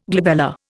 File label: "glabella", Indian